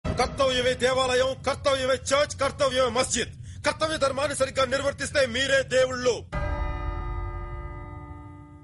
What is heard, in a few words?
Dialog